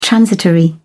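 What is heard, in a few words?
"transitory", British English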